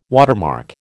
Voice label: "watermark", American